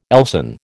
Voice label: "Elsan", American